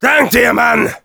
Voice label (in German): Demoman good job, de